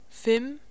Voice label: "5", Faroese